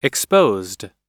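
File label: "exposed", US English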